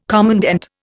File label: "commandant", Indian